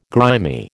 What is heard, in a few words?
"grimy", American